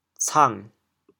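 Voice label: "cang3", Teochew, chaoyang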